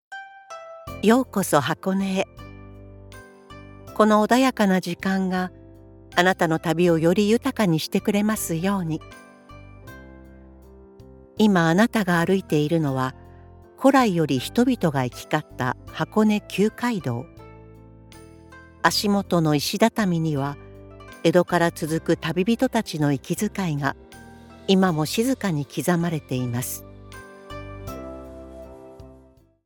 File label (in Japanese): 音声ガイド